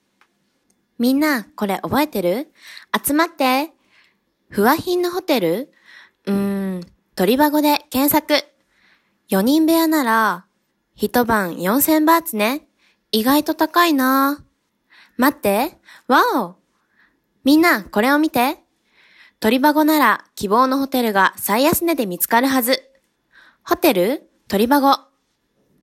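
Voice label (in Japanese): f JA Mobile Rec, female Japanese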